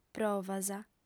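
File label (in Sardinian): "provas", female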